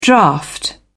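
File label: "draught", British English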